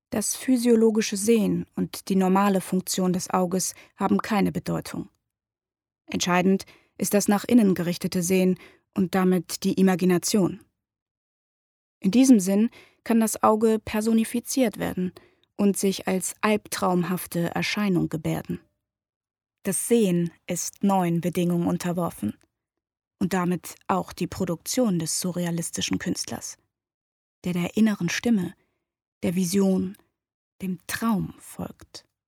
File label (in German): Sprecherdemo Doku Surrealismus